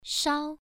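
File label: "shao" Mandarin Chinese, first tone, pronunciation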